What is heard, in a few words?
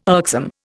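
"irksome", Indian English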